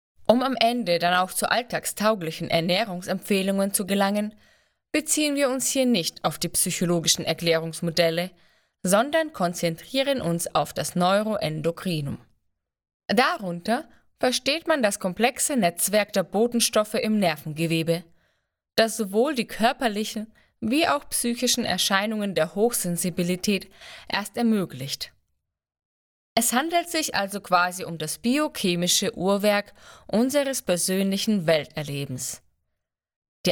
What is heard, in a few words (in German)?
Ratgeber